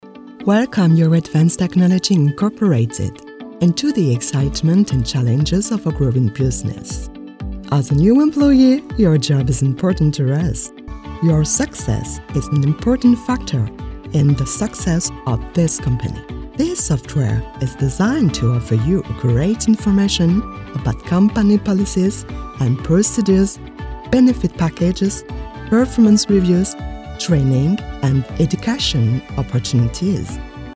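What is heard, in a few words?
French, English, female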